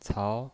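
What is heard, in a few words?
"cao", Mandarin Chinese, second tone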